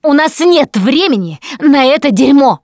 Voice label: reaction negative, russian, Survivor Voice Producer